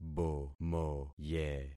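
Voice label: "bomoye", concatenated syllables; Affixing experiment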